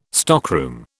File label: "stockroom", British